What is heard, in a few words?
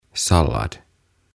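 "Sallad", Swedish, "Salaatti", Finnish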